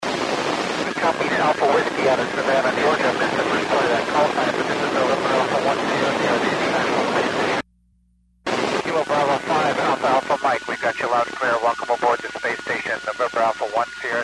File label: QSO; NA1SS